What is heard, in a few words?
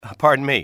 pardon me, english male; XBOX; civ